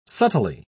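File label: "subtly", British English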